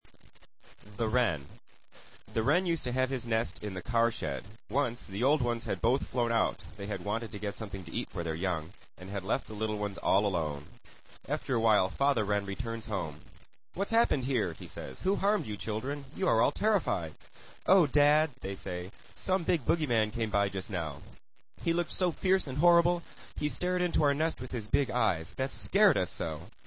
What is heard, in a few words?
english